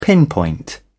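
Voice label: "pinpoint", British English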